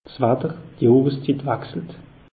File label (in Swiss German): "watter", alsace; meteo